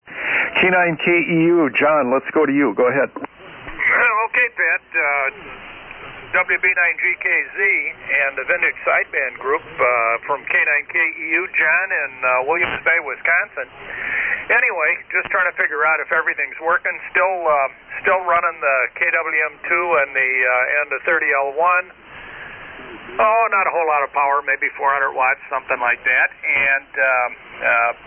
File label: Collins KWM-2 & 30L-1; Williams Bay, WI.